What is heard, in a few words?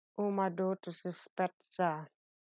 Ilocano